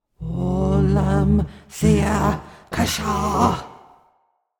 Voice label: incantation feminine demonic summon; incantations; psfx